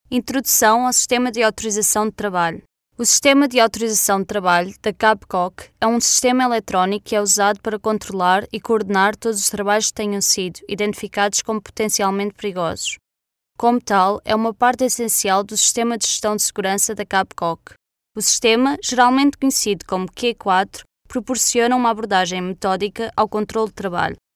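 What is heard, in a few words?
Portuguese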